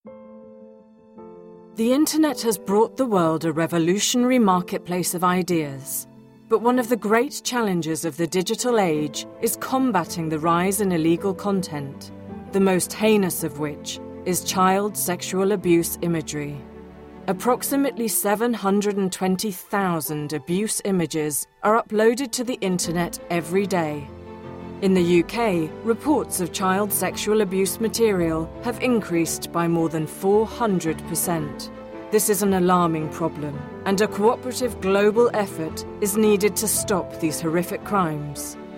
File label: Video VO